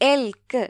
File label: "elk", ml; gcompris